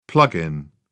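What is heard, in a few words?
"plug in", British English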